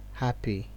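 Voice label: "happy", Nigerian Pidgin, male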